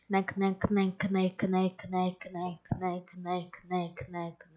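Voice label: "neck", spoken word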